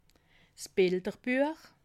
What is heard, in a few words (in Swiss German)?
"bilderbuech", alsace